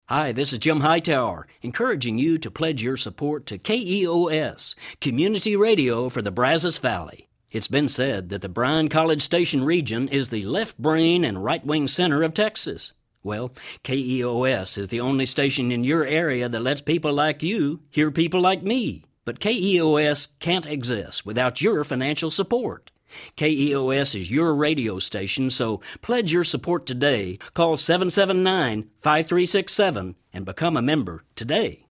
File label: Promo; KEOS